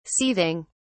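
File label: "seething", British English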